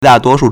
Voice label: "da4 duo1 shu4", Chinese